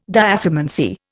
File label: "diathermancy", Indian